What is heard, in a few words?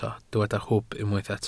"hwb", Welsh